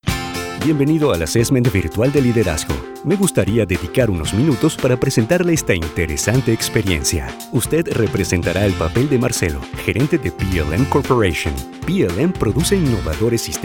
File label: spanish elearning